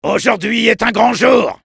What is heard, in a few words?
cheers, fr; Soldier